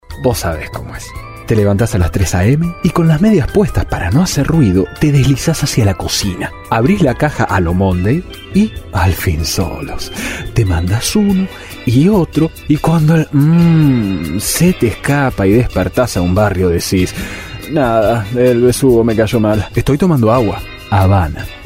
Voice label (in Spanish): comerciales locuacting